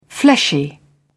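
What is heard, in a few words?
"fleshy", British English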